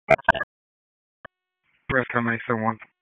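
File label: EMS Dispatch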